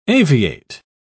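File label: "aviate", British English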